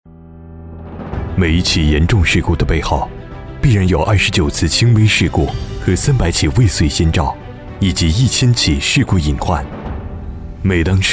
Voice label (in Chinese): 男 消防专题 磁性走心; 男DMR-大气沉稳; 质感磁性